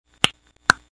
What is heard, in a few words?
clicks